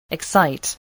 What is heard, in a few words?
"excite", voice, english